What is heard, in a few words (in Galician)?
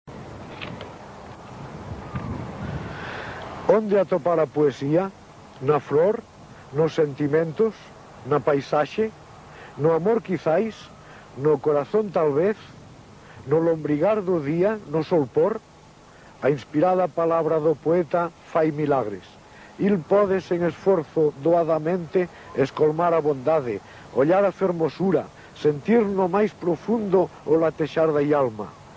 poesia recitada; onde atopar a poesia